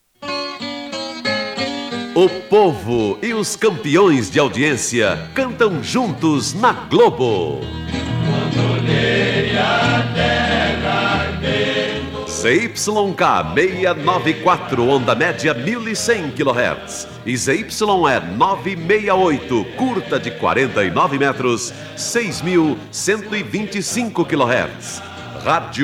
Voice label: Radio 1100kHz; Radio Globo SP